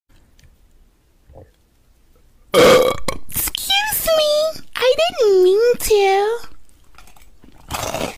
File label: scuse me asmr